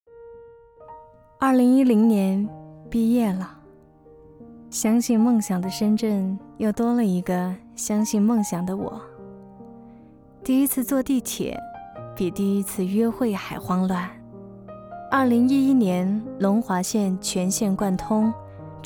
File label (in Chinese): 女 深圳地铁